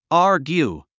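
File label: "argue", English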